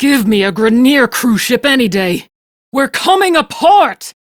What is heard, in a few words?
Crew Ship Low, Steel Meridian Female; Dialog; en; Warframe; RailjackCrew